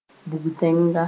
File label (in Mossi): "bʋg-tẽnga", Moore; Paradise